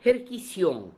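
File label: "Herkission", pronunciations; Fourth Way; Beelzebub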